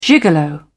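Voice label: "gigolo", British English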